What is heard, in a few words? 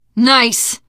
nice shot, teen girl voice; source engine; left 4 dead 2; survivor